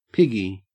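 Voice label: "piggy", Australian English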